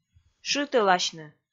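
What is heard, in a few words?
"шытӧласьны", Komi, female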